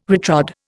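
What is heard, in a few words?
"retrodde", Indian English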